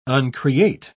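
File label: "uncreate", British English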